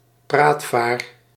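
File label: "praatvaar", Dutch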